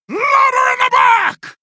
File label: Female Quilboar Attack